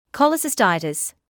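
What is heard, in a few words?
"cholecystitis" English, British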